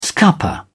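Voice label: "scupper", English, British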